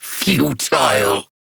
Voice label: Battle Taunt, Dialog; Kuva Lich; Persona C